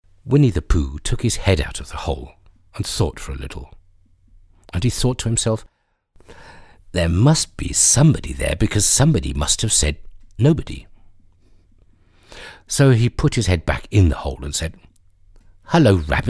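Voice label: British English, Schauspiel Neutral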